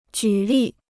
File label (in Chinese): "举例", Chinese